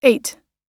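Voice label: "ate", American English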